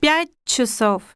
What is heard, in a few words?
russian 1 hour